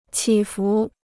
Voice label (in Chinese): "起伏", Chinese